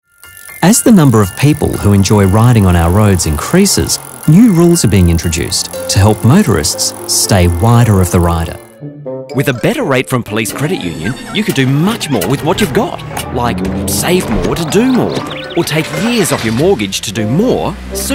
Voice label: Radio TV